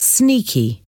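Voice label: "sneaky", English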